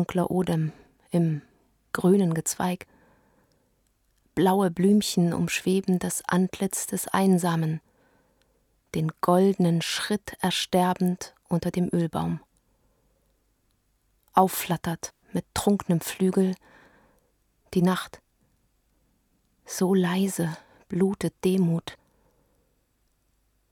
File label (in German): gesang einer gefangenen amsel; karoline schuch